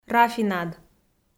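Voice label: "рафинад", Komi, female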